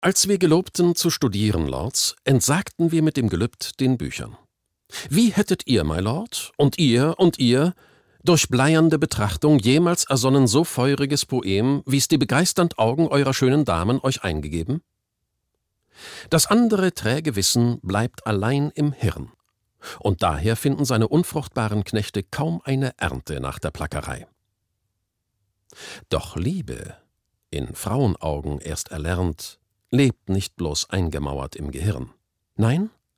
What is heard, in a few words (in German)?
synchron